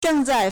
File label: "zheng4zai4", Chinese